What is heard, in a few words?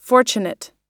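"fortunate", English; oxford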